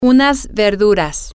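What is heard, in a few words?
some vegetables, Spanish, female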